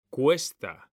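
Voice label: "CUESTA", Spanish; mekanta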